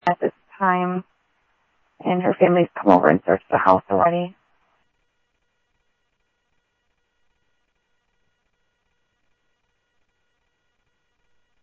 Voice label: Sheriff, scanner audio; SoutheastCenMesa; MCSO - EAST; Sheriff-EastCnty

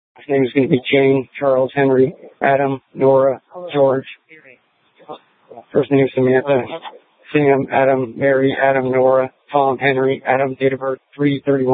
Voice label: Sheriff, scanner P25

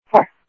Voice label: Sheriff, scanner audio

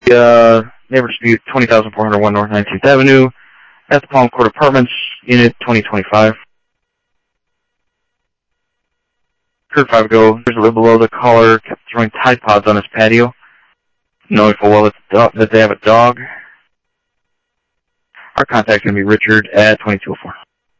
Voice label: Phoenix PD, scanner

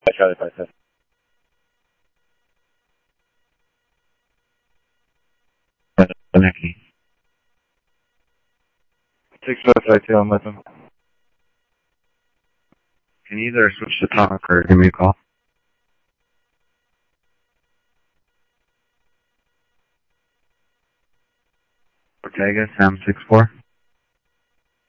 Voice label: Mesa Simulcast, MESA PD, scanner audio

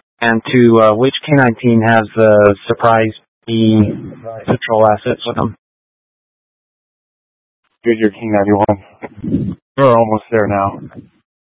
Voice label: Mutual Aid-Intersystem Interop B, scanner

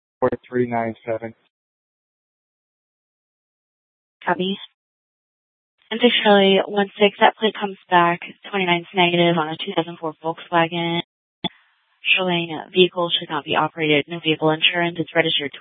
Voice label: Police Department, Dispatch; TOPAZ Regional Wireless Cooperative (TRWC) - Mesa Simulcast; 1 Fiesta Dispatch

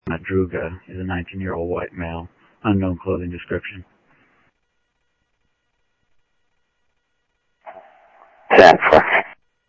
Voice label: South Scottsdale Sheriff, scanner audio

